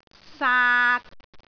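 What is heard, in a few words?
"saat3", Cantonese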